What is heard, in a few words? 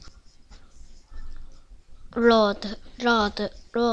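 "lute", spoken word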